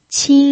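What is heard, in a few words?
"qin", pinyin, tone 1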